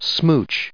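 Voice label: "smooch", US English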